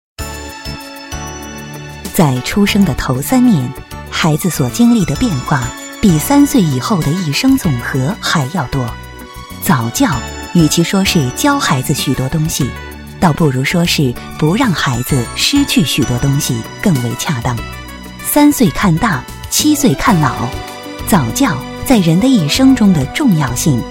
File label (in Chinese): 女, 广告, 早教; 家知音早教